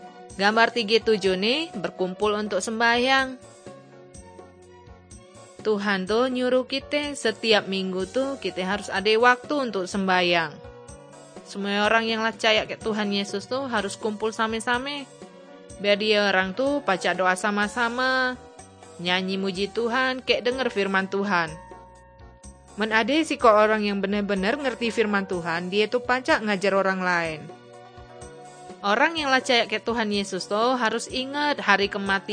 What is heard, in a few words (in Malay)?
kabar baik good news malay bangka